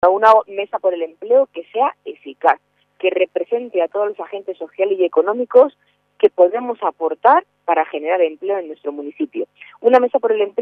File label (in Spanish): noticias